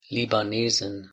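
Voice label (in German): "libanesin", German; pronunciation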